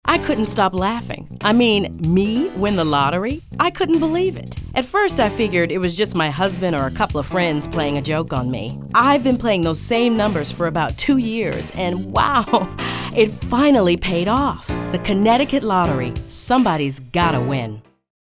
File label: COMMERCIAL; Connecticut Lottery